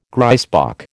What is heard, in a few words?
"grysbok", American